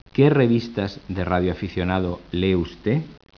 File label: SPANISH; speech